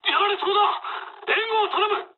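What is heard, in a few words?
aircraft damaged mood low, speech japanese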